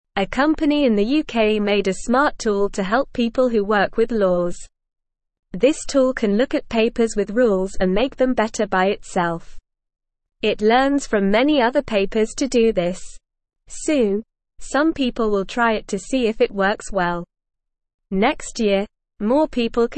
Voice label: English Newsroom Beginner NORMAL Reading; Smart Tool Helps Make Laws Better and Faster